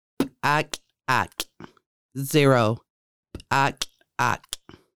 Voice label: zero, Lushootseed; Conversational Lushootseed: Lessons 1-5